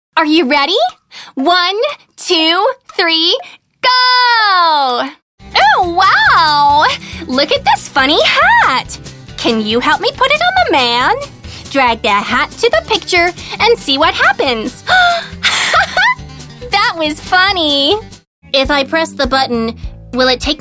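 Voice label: American, toys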